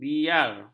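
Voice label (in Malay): "biar", Malay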